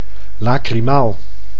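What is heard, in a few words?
"lacrimaal", Dutch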